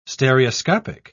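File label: "stereoscopic", British English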